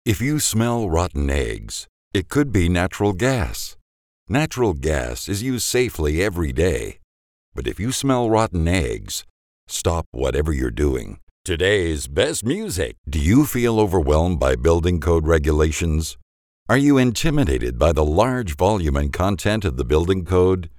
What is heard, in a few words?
Dry Studio Quality